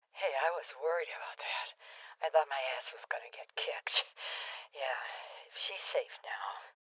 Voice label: Cop Evacuated Female Old; Rebel Cops